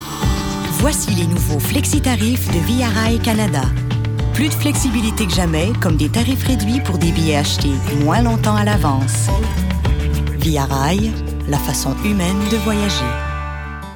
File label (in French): Publicité; Via Rail